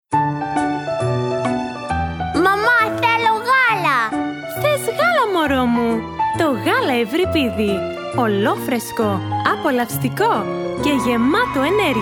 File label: Greek Baby Milk Commercial, Greek, Versatile, Warm, Natural, Smooth